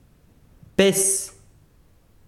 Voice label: besiro fuego, chiquitano